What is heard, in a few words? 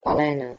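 "Heh3leneh2", Proto-Indo-European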